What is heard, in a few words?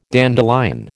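"dandelion", American English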